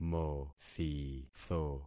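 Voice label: "mothitho", concatenated syllables; Affixing experiment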